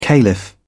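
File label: "caliph", British English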